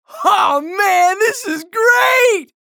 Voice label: chatter positive; anarki; frag